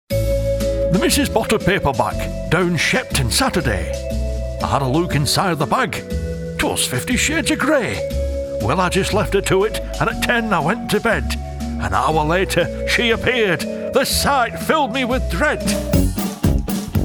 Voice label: Scottish characters

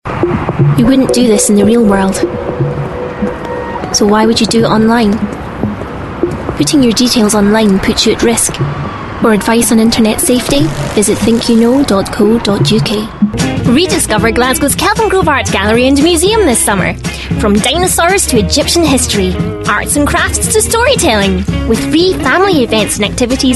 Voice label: commercials

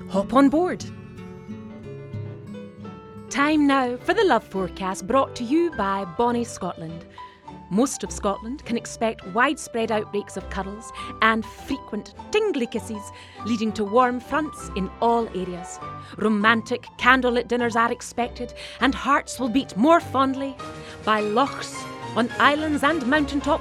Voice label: commercial, Scottish; Bonnie Scotland

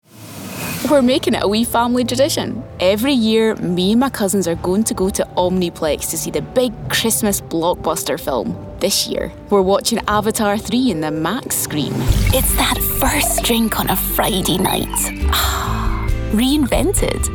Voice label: commercial

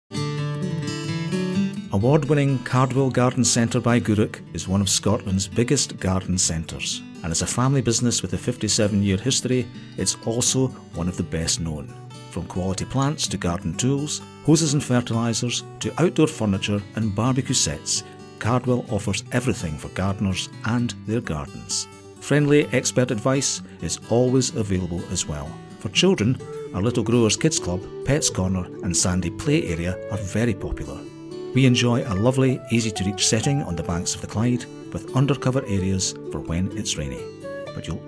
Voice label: Advert, scottish voiceovers

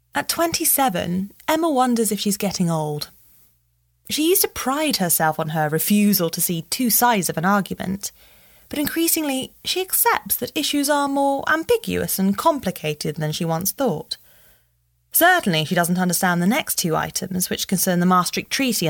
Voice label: Narration

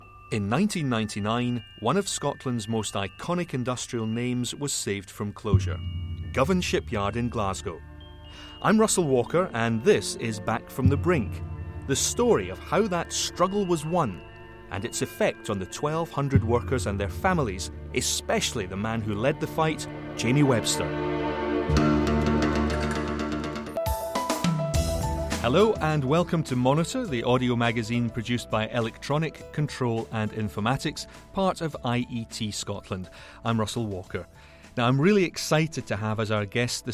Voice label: Presenter

